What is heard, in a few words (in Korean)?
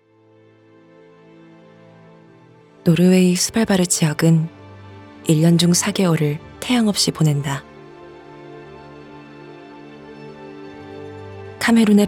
희망찬 기업 홍보